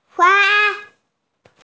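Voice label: "hua1", Mandarin Chinese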